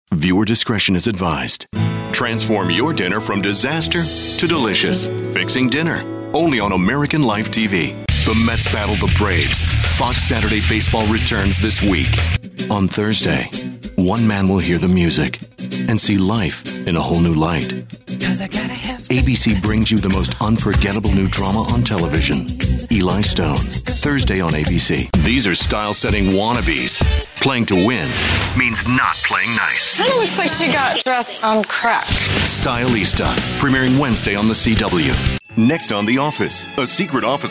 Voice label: Promo

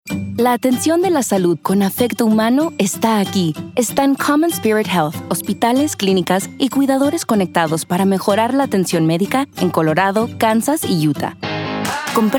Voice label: Spanish Commercial

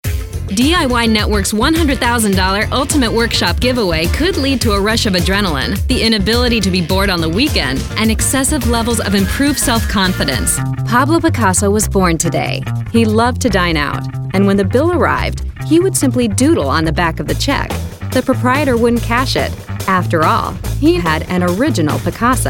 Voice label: Promo

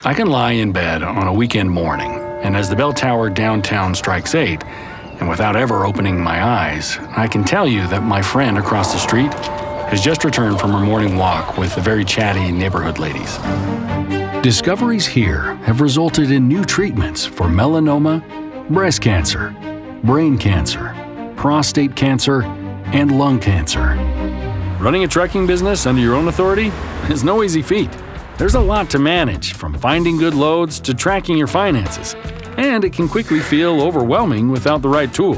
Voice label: Narration, English

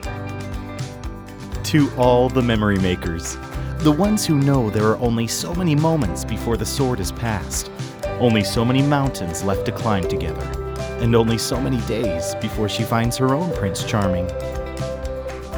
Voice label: Commercial

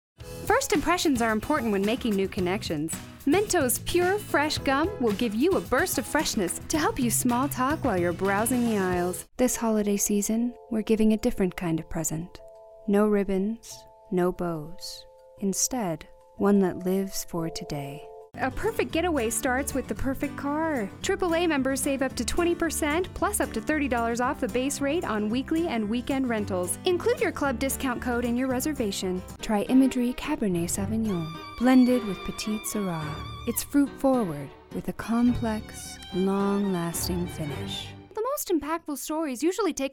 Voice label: Commercial

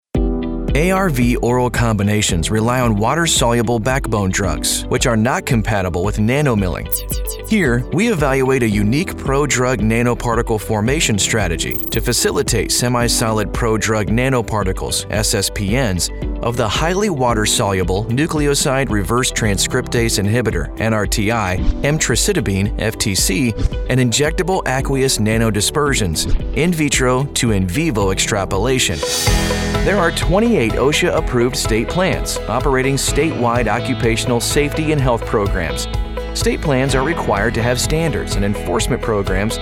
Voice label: Medical Narration

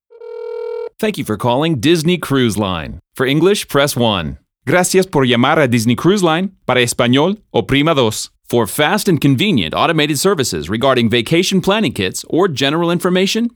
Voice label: IVR

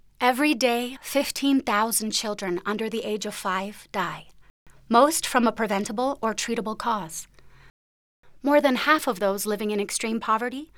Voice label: Narration

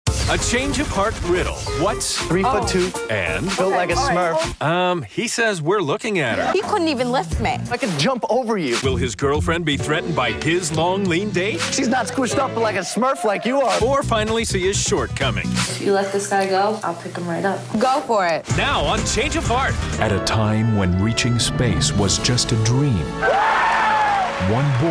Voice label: promo

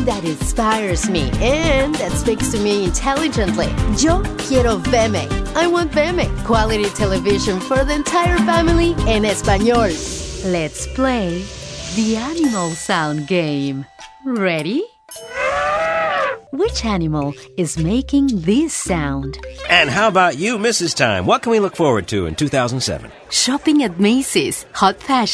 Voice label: english comm

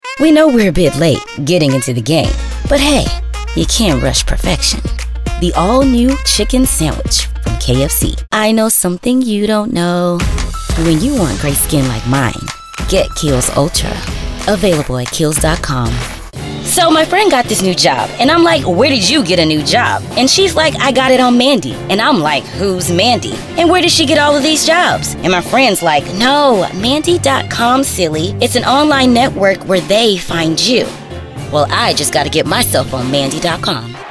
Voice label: Commercial

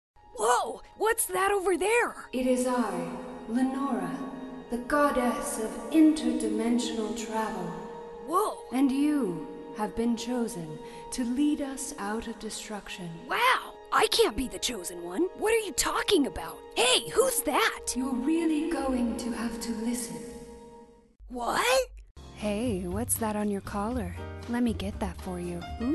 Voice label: Character VO

